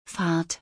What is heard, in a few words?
"Fahrt", German